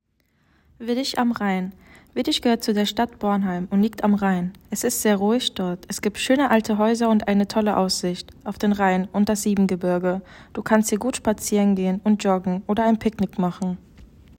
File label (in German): Deutsch